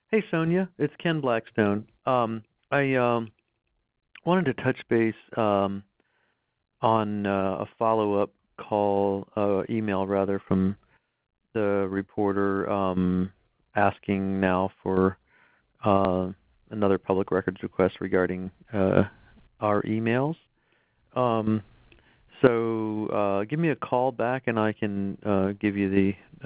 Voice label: Voicemail